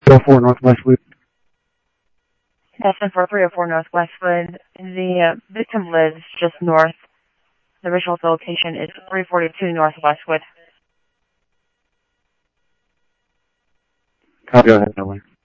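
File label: Simulcast police department, scanner audio trunk; Mesa Simulcast; MESA PD 2 Centrl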